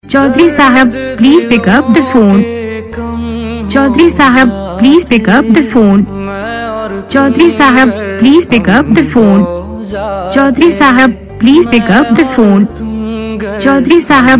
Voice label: chaudhary shaab pick up phone saying; Your Name Ringtones A To Z Names

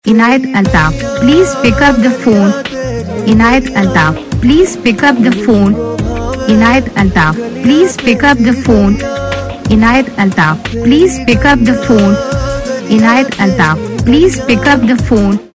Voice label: pick up phone saying, Ringtones; Your Name Ringtones A To Z Names

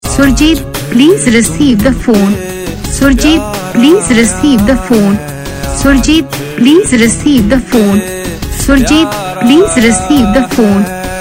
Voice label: please receive phone saying

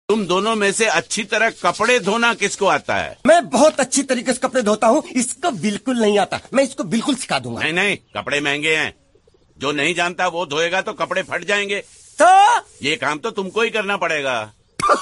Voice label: Funny Dialogue Ringtone

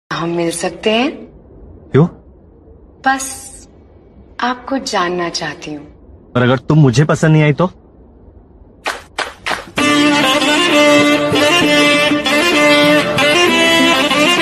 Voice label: Romatic Dailogue Ringtone

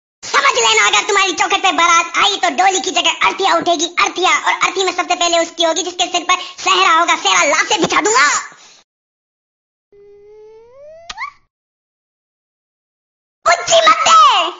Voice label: Funny Dailogue Ringtone; Sunny Deol

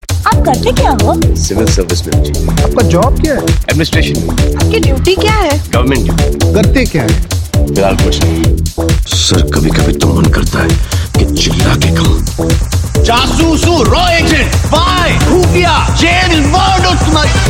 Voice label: tiger funny dialogue

